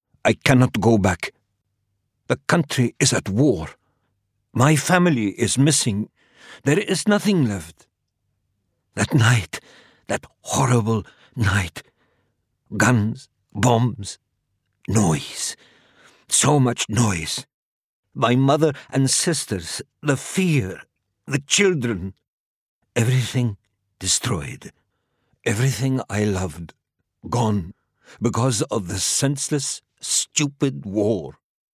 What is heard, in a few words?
Arabic Character